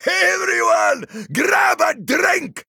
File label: Male Dwarf Play